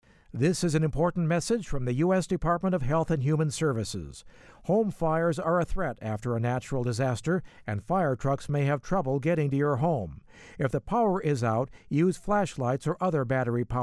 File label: psa disaster candles male voice